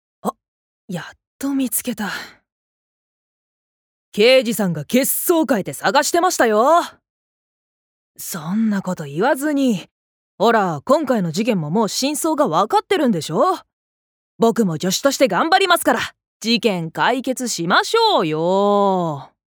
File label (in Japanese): アニメ